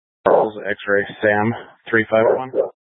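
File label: Police Dispatch, scanner audio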